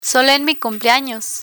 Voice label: "solo en mi cumpleanos", Spanish; social history

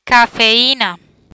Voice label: "Cafeina", Spanish; migraine therapy; learn spanish

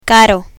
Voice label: "caro", Spanish; spanish alphabet

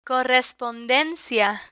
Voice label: "correspondencia", Spanish

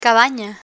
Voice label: "cabana", Spanish

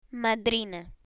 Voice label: "madrina", Spanish; learn spanish

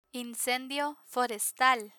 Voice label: "incendio forestal", Spanish

